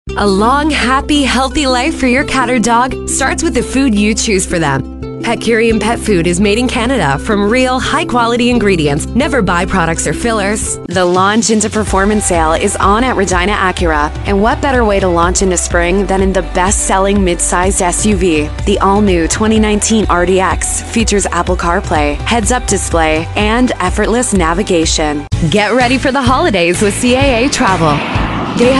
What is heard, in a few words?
Female American Accent